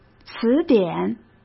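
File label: "词典", Mandarin Chinese; chinese-flashcards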